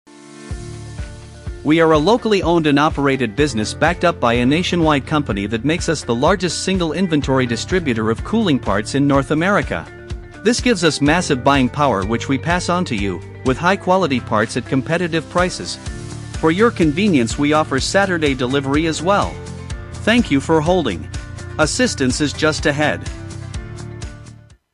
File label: English male; voice talent demos